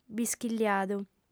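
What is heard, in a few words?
"bischigliadu", female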